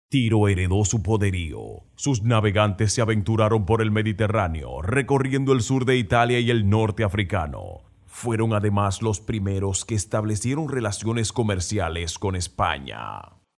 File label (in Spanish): locutor dominicano dominican voiceover; locutor de Santo Domingo